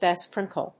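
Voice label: "besprinkle", English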